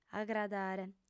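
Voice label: "agradare", lingua sarda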